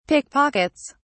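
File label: "pickpockets", British English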